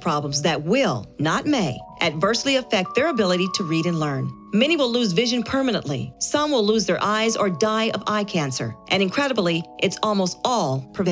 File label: Public Announcement